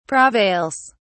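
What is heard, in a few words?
"prevails", British English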